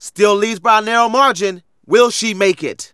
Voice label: Narration